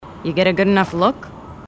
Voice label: female marines

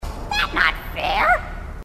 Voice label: grunt; h2dialogue; grunt crazy

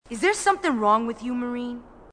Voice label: female marine, marine tough

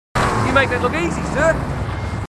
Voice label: marines, aussie; h2dialogue

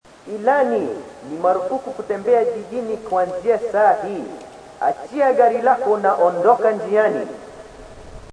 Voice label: swahili; h2dialogue

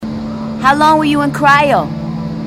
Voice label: female marine

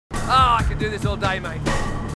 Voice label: marine aussie; h2dialogue

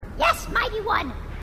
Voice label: grunt; h2dialogue; grunt crazy

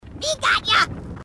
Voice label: grunt crazy; h2dialogue

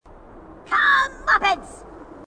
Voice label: grunt; h2dialogue; grunt crazy

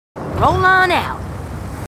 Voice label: marines female, marine tough

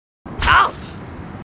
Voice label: marines, aussie; h2dialogue

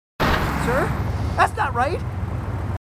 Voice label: marines, marine timid; h2dialogue

